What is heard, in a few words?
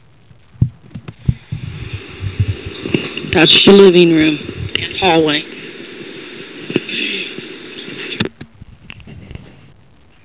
male voice